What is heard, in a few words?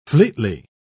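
"fleetly", British English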